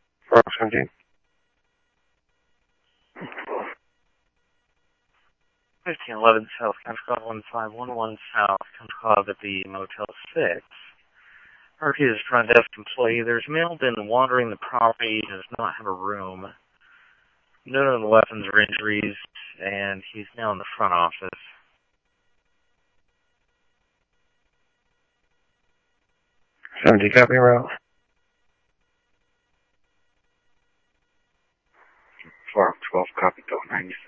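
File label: Mesa Police Department, scanner audio trunk; Mesa Simulcast; MESA PD 1 Fiesta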